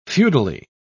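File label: "feudally", English, British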